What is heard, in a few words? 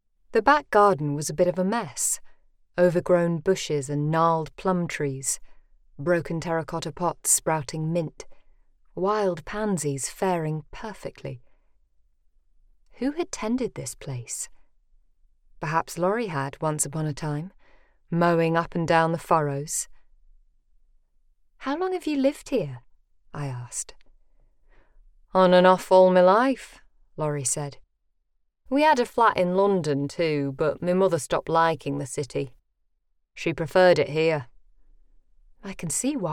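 Contemporary audiobook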